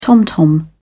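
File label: "tom-tom", British English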